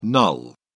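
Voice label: "null", British English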